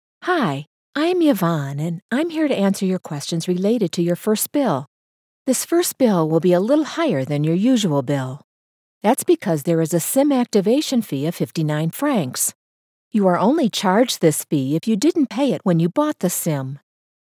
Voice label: english american animation

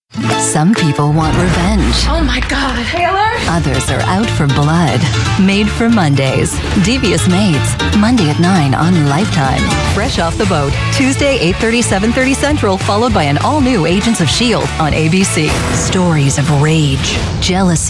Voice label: English American promos

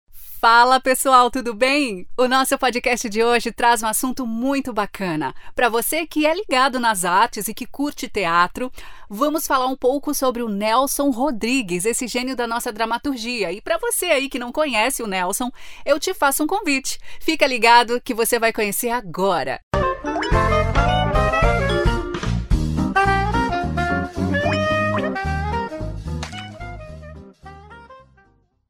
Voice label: portuguese brazilian podcasts

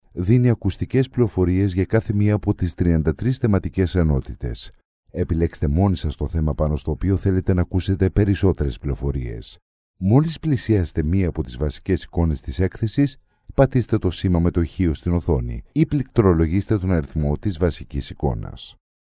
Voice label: Greek e-learning

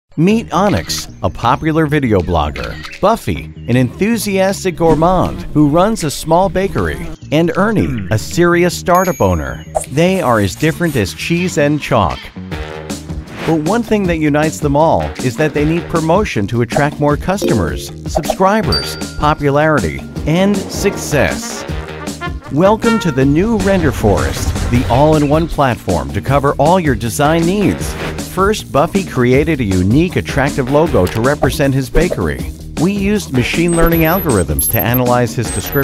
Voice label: english american animation; professional American voice talent

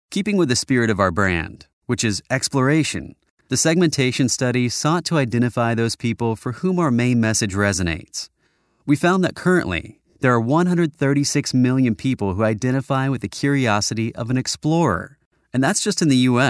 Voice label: english american training e-learning

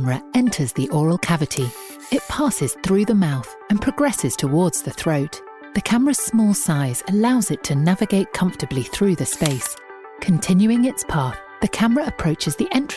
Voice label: english british medical narration

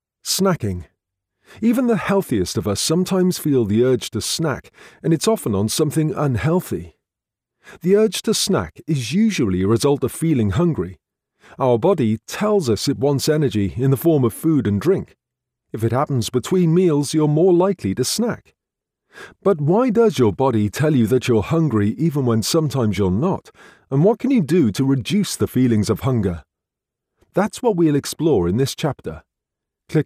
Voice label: english british e-learning

